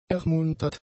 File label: "ermuntert", German; adjectives